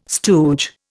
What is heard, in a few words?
"stooge", Indian